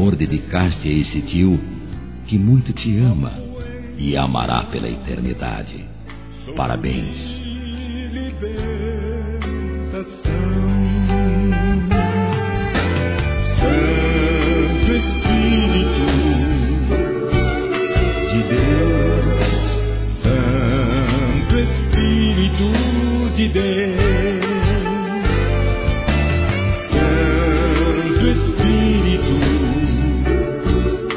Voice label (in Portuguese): Aniversário Sobrinha Masculino; Aniv Familiar